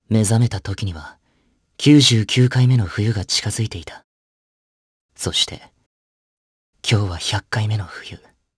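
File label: voice dia, voices heroes, Japanese; Theo; kingsraid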